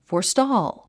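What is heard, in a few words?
"forestall", English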